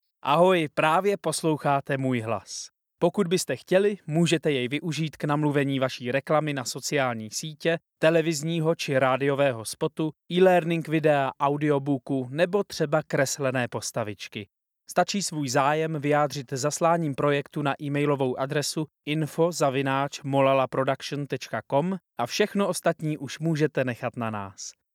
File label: czech narration